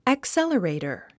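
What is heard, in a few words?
"accelerator", English word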